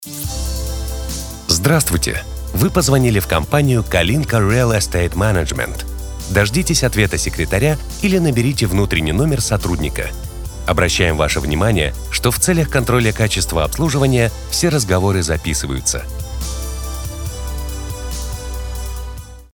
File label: IVR